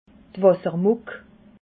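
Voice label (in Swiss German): "wassermuck", Alsatian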